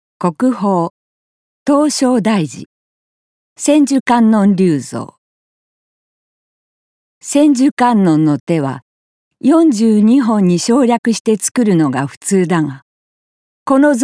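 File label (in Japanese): 解説ナレ重み, ボイスサンプル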